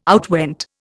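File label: "outwent", Indian English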